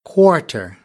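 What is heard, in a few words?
"quarter", American English; AmericanBritish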